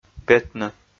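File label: "петны", Komi, male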